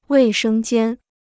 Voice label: "卫生间", Chinese

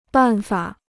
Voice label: "办法", Chinese

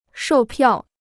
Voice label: "售票", Chinese

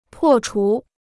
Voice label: "破除", Chinese

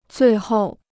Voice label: "最后", Chinese

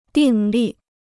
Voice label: "订立", Chinese

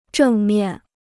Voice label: "正面", Chinese

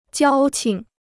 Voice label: "交情", Chinese

